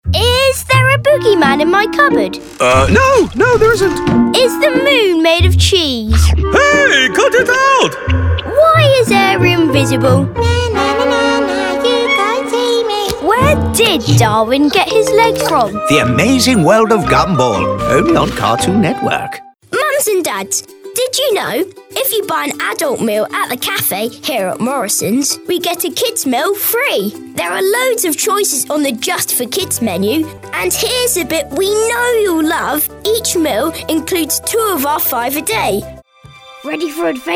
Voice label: Kidztalk